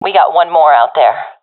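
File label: enemies left one, professional female; vo; agents; Source Model Packs; Counter Strike Model Pack; CS2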